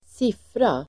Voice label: "siffra", Swedish